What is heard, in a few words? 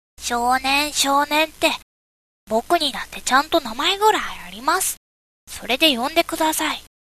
boy